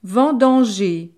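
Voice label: "vendanger", French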